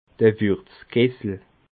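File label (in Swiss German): "wurzekessel", Alsatian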